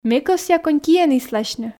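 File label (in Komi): ме кӧсъя конькиӧн исласьны, Komi, female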